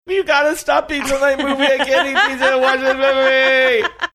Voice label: saying something while being squicked out

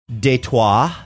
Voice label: Detroit faux French

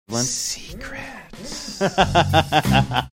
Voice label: whispering

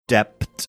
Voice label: saying dept funny

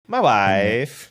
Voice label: sing sony fashion; my wife

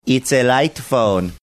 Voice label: saying it's a light phone funny manner

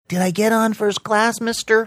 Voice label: did I get on first class mister child's voice

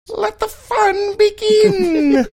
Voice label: funny

